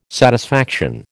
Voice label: "satisfaction", American